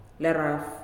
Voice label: "Afternoon", Nalik

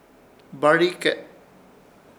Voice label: "BAHRIKE", Ishir Chamacoco; fallecido

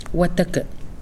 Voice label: "lung", Pingelapese

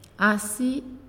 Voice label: "Sleep", Naasioi

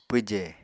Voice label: "pwje", Apatani

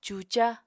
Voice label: "cuca", Apatani

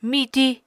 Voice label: "miti", Apatani

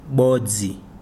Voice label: "one", Chikunda

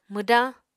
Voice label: "mwdañ", Apatani

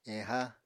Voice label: "eha", Apatani

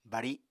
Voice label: "bari", Apatani

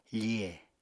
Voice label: "fihe", Apatani

